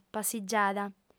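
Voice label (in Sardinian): "passigiada", female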